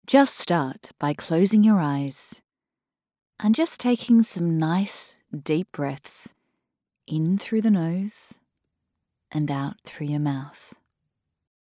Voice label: Mini Meditation AUS

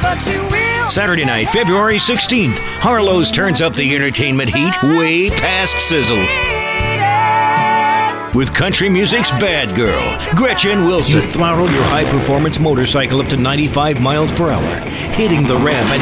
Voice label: voice and production casino promotions

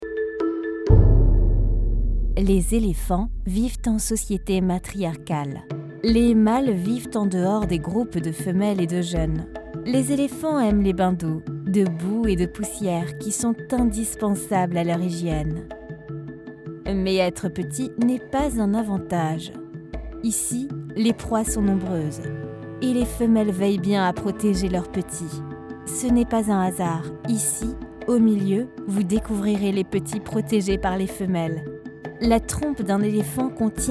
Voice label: DOCUMENTAIRE

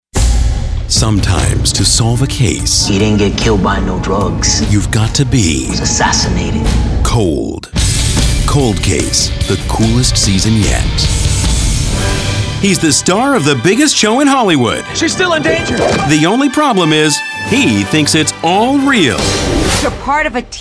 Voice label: Promo

